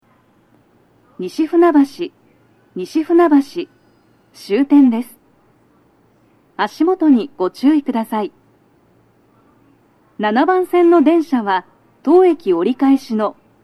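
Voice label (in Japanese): 到着放送 女声, 各駅停車 中野行; 収録者:こたけ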